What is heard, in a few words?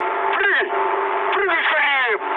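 Russian bailout mood med, speech; War Thunder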